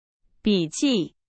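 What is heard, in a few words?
Chinese; hsk2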